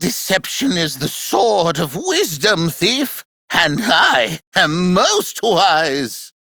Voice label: Treasure Taunt, Corpus Aristocrat Manager, Battle, Barks, Dialog; Warframe; Treasurer